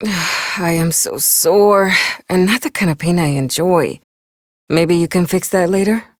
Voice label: Ambient Barks, en; Warframe; Mall Romance; Lettie